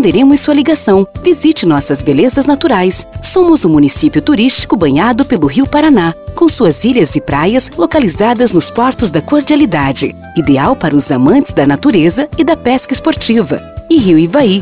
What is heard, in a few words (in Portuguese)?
Espera Prefeitura com Trilha, esperas telefonicas